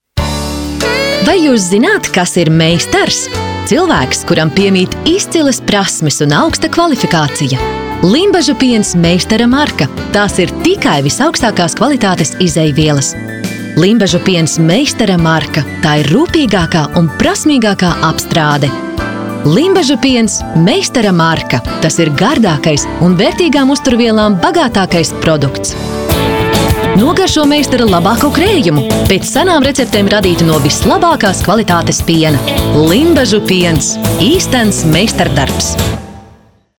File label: premium female, balsai latviu moteris